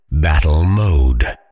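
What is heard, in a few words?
"battle mode", Male; kenney voiceoverfighter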